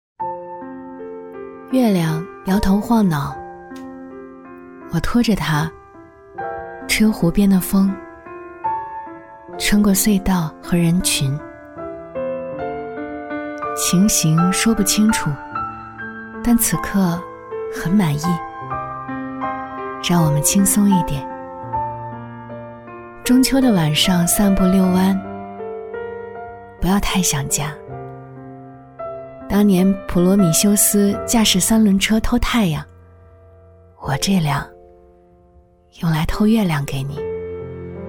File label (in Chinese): 女 故事 自然 讲述; 中秋